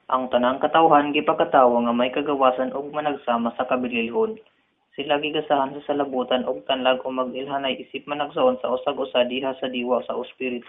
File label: cebuano